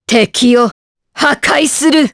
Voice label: Vox Skill, jp; Priscilla; kingsraid